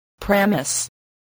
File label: "premise", voice, spelling